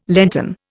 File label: "Lenten", Indian